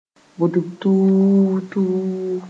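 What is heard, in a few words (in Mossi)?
"bʋdb-tuu-tuu", Mooré; bird sp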